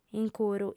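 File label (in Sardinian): "incuru", female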